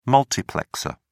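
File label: "multiplexer", English, British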